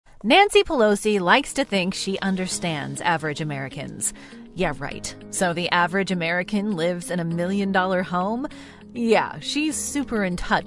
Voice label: Republican Voiceover, Political GOP Voices English